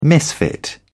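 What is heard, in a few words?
"misfit", British English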